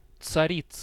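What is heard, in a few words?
"Tsaritsyn", Russian; Царицын/Zarizyn; Wolgograd; russische Großstadt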